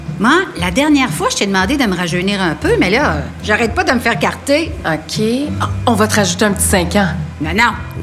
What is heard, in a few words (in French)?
PUB voix personnage Coiffeuse, PUB/Voix familière, sens du punch, complice; Desjardins Assurances